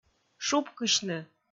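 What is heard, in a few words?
"шупкысьны", Komi, female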